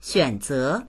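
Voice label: "xuan3ze2", Mandarin Chinese; 选择; 選択する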